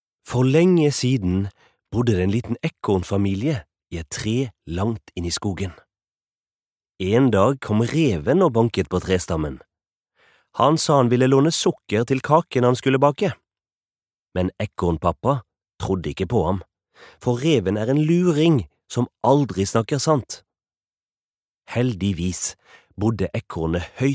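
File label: Norwegian childrens book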